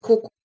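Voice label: "h2ukw", Proto-Indo-European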